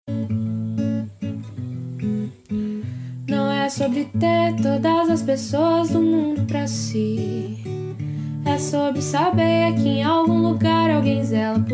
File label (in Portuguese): ANIV IRMA, telemensagem aniversario irma